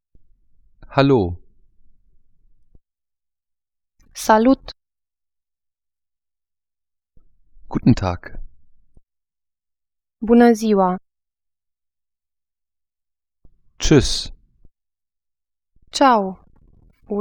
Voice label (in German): rumaenisch